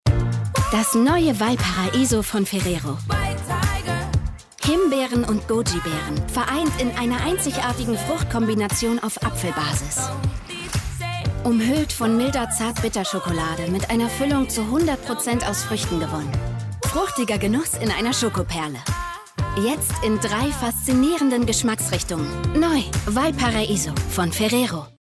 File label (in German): Werbung